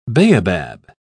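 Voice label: "baobab", British English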